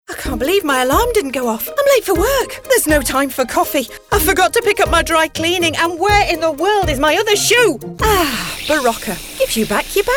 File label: Commercial Showreel